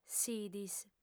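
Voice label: "sidis", female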